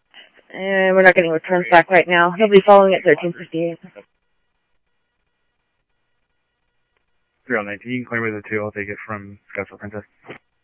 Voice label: Police, scanner; H-Scottsdale; ScottsdalePolice